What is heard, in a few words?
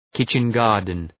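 "kitchen garden", English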